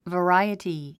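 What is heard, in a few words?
"variety", English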